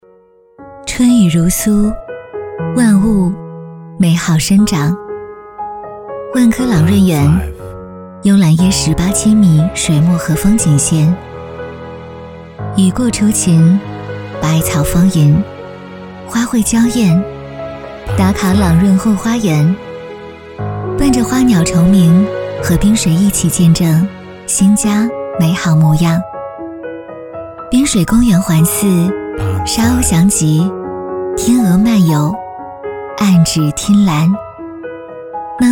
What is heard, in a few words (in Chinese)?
女 地产; 万科朗润园